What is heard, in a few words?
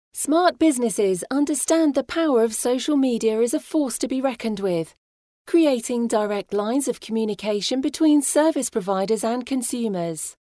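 English British, female, corporate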